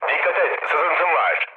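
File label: Turkish damaged water, mood low, speech; War Thunder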